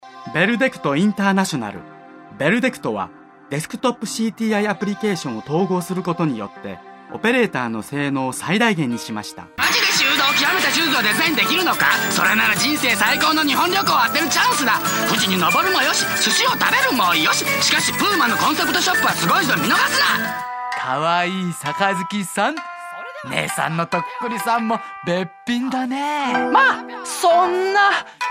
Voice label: Japanese

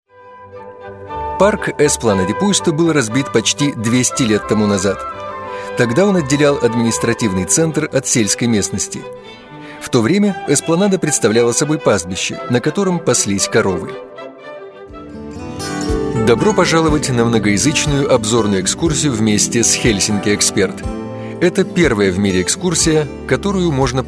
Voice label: Russian

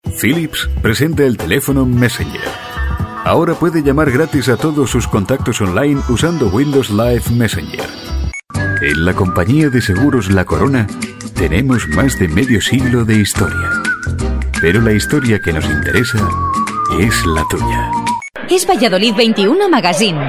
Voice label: Spanish

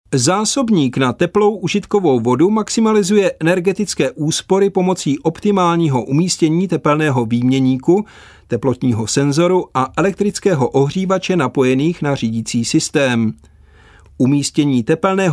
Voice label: Czech

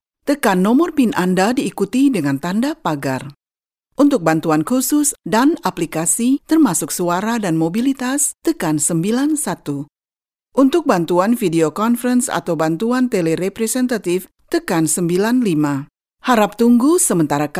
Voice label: Indonesian F

